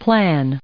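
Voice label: "plan", us English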